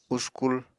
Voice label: "uskul", Apatani